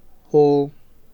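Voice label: "hold", Nigerian Pidgin, male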